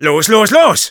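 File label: Sniper go, German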